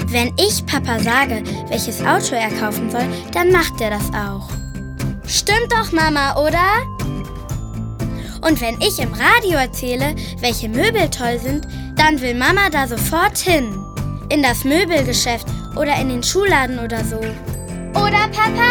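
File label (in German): Werbung Kinderstimmen, German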